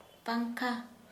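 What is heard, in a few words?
Santali female fan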